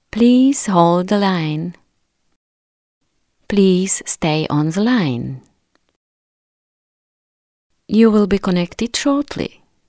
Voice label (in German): englisch tschechisch, Sprecherin, telefonansagen; englisch-tchechisch